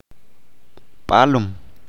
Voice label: "jaguar", Chalchiteko